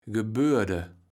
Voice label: "gebörde", Kaevels Platt